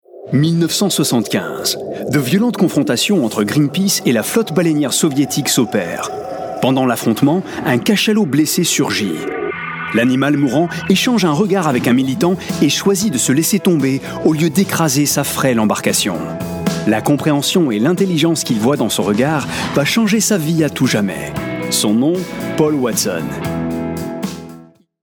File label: Intro FR; Sea Shepherd